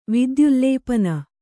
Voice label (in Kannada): "ವಿದ್ಯುಲ್ಲೇಪನ", kannada